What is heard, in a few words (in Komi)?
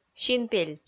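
"син-пель", Komi, female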